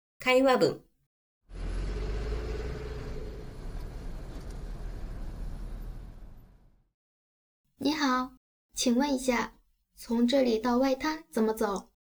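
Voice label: conversation; 会話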